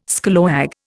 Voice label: "scallawag", Indian